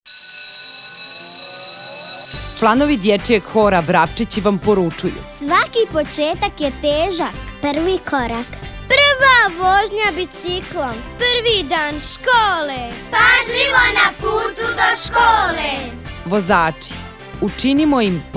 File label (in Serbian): početak škole, radio džing; zakoni